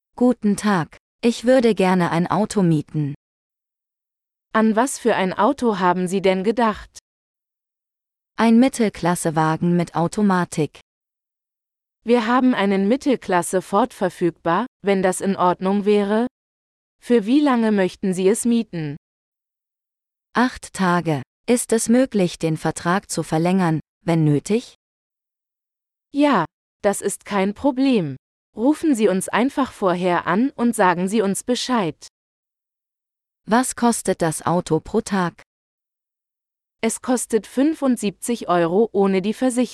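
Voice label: Renting a Car - Dialogue, German; de-en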